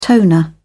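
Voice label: "toner", British English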